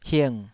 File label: "hing3", taiwanese syllable